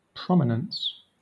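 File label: "prominence", English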